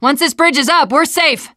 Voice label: hitting bridge button, teengirl